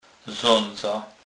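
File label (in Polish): "zadza", Kurpie dialect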